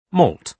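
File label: "malt", British English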